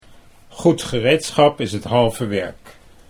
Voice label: goed gereedschap is, Dutch; Goed gereedschap is het halve werk; good tools make a job much easier

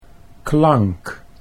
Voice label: "klank", Dutch

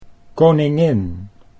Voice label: "koningin", Dutch

